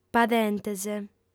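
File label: "padentes" Sardinian, female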